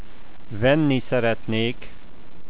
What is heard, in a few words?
"would", Hungarian